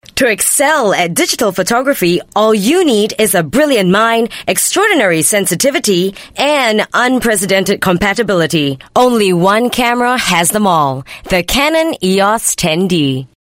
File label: Asian English Hard Sell Read